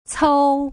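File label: "cou", Putonghua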